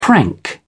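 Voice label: "prank", English, British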